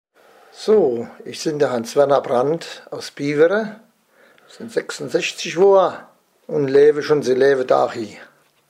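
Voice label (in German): Vorstellung, O-Ton Hunsrück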